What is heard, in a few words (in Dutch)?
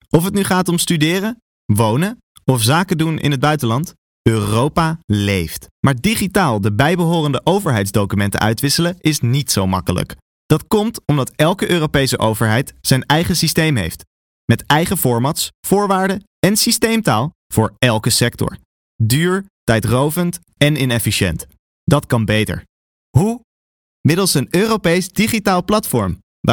zakelijk